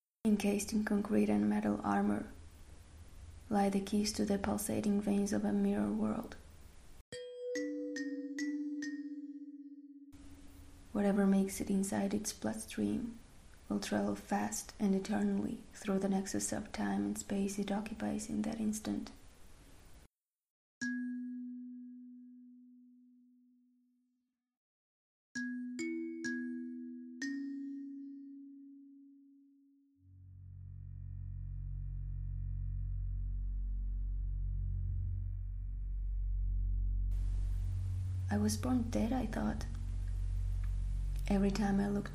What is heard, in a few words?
voice over